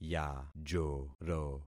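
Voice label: "yajoro", concatenated syllables; Affixing experiment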